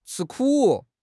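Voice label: "吃苦", Chinese; HSK6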